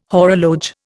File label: "horologe", Indian